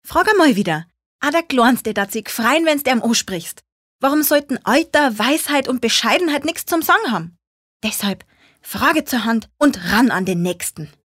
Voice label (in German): Dialekt Bayerisch